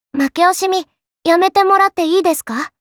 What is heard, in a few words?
Makeoshimi, Kawaii Voice; Memes